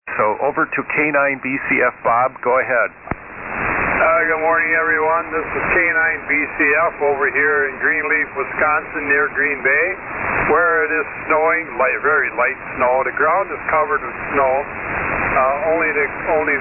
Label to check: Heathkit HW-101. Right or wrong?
Right